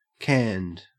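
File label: "canned" English, Australian accent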